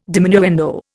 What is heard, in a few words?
"diminuendo", Indian